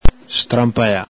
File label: "stranpala", Veneto